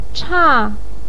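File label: "cha" fourth tone, Mandarin Chinese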